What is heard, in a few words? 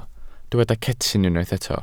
"cetyn", Welsh